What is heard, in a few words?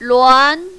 "luan2", mandarin, female